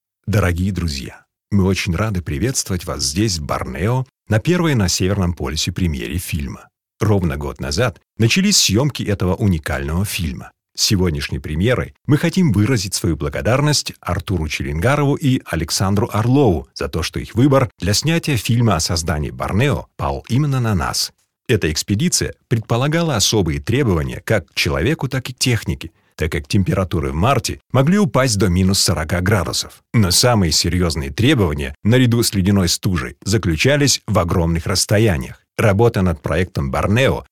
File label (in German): Freundlich, RU